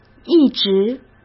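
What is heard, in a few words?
"一直", Mandarin Chinese; chinese-flashcards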